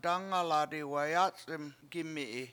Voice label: "dAngala7 diwayAc71m gImiyi" smoke comes out of house, Washo